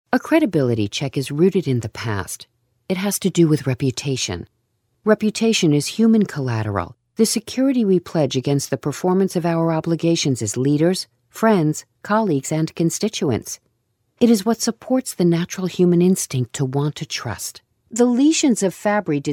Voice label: Corporate, American Corporate; Voice-over demos

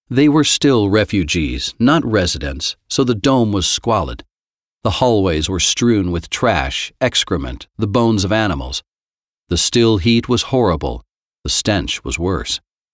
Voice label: Audio books, American; USA

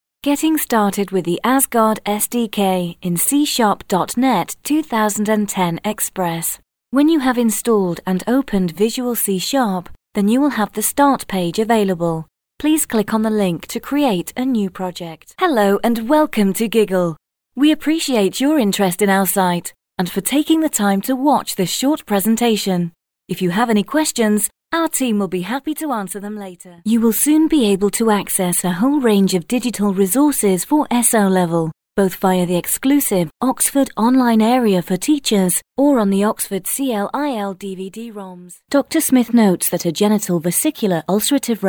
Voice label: Corporate, UK; Voice-over demos

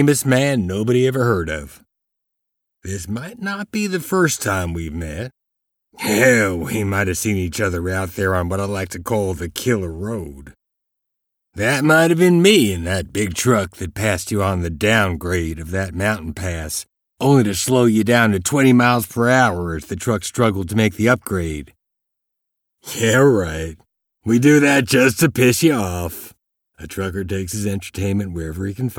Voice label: audio books, American; USA

